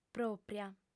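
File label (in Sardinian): "pròpia", female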